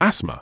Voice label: "asthma", medical english uk

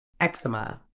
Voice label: "eczema", uk

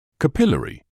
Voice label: "capillary", medical english uk

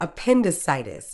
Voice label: "appendicitis", Medical English

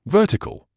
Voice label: "vertical", medical English

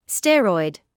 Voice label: "steroid", medical English

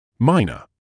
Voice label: "minor", English

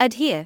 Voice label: "adhere", English

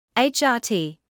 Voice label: "HRT", English

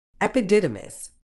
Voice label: "epididymis", uk

